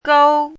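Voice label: "gōu", Mandarin Chinese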